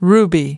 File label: "ruby", us